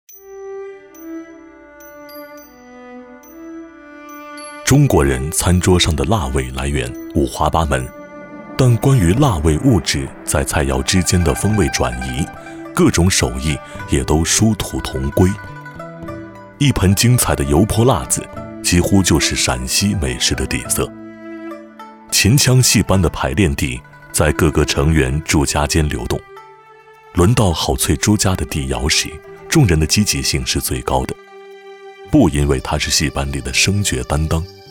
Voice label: 男 无辣不欢; 舌尖; 央视9套; 陈晓卿导演

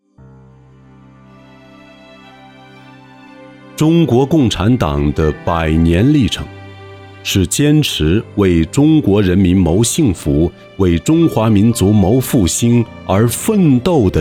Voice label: 男 专题片 党政; 零容忍

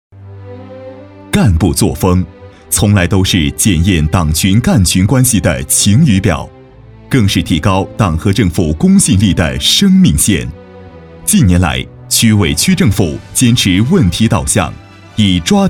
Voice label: 男 党政专题

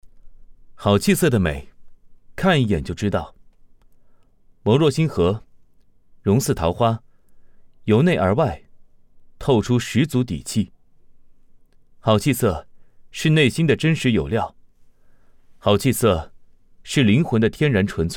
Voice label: 男 素人广告; 桃花姬

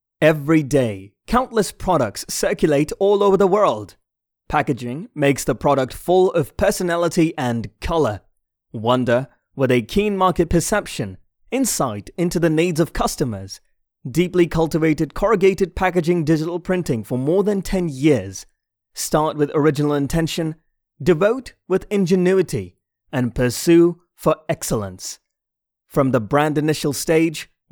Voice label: 英文版; 万德

